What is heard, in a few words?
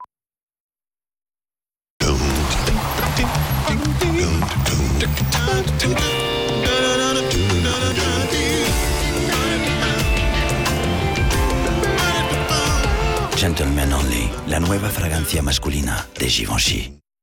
mix Spanish; GIVENCHY